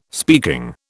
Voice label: "speaking", British

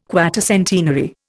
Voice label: "quatercentenary", Indian

